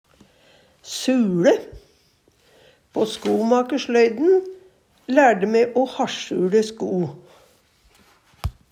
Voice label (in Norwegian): suLe, Numedalsmål; Substantiv hankjønn